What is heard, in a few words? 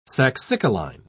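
"saxicoline", English, British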